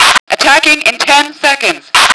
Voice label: fem attack; lmctf

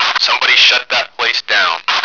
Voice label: fem shut, radio; lmctf

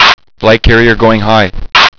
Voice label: high flag, radio; lmctf

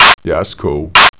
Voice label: male thats cool; lmctf

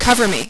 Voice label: cover, radio female

